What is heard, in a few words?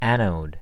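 "anode", American English; pronunciations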